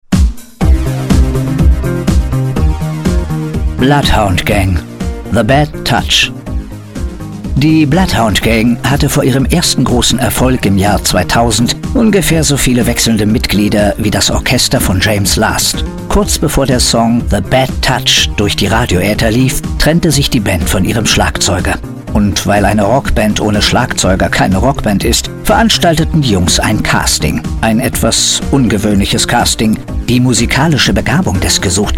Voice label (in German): Doku; Santiago Ziesmer